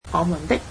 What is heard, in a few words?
"our", Cantonese